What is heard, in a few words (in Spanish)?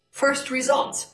Voice Acting es